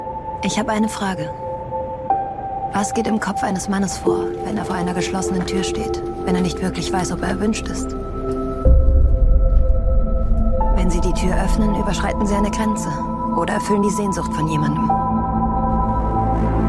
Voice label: Emmanuelle Trailer Voice, EMMANUELLE (Auszug)- trailer